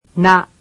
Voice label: japanese alphabet "na"